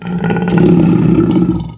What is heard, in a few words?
Sleepy; Ganondorf